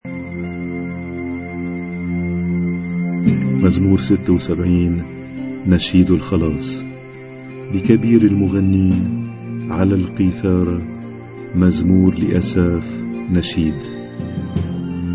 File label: Arabic Psalm Zaboor